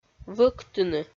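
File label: "вӧктыны", Komi, female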